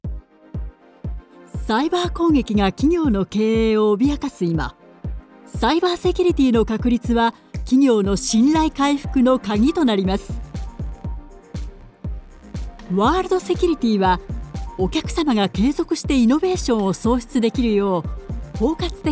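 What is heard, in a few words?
Japanese, Corporate; GoLocalise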